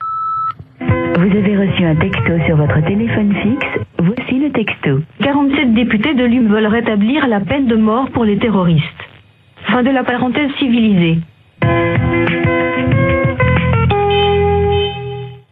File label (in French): repondeur; Retablissement peine de mort